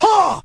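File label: "huh", vocal sample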